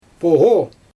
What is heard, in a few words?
"poGo" Xyzyl, female; chest decoration